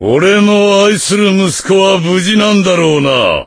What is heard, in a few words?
voice, dubbing